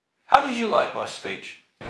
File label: Voice Acting, es